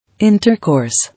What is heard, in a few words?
"Intercourse", English